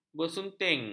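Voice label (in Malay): "bersunting", Malay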